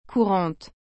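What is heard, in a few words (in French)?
"courante", French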